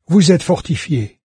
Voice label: fortified, fr, NARRATOR; Fate